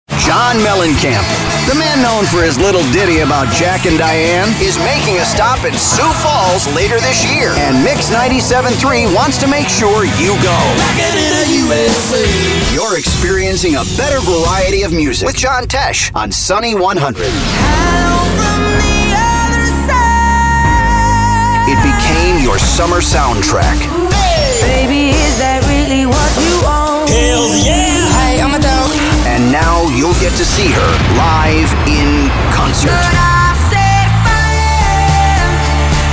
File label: Imaging Male; Commercial Voiceover, Promo